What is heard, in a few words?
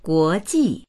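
"guo2ji4", Mandarin Chinese; guójì; 国际; 国際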